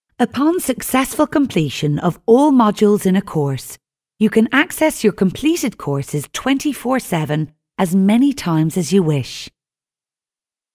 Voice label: e-learning